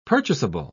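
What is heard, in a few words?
"purchasable", British English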